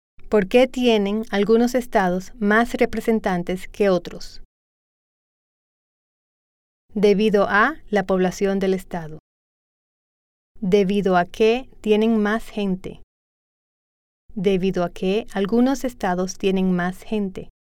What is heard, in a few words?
Spanish